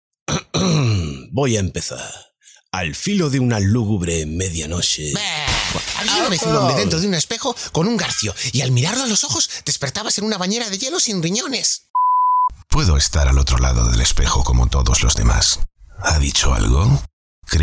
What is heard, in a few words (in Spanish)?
Doblaje Mix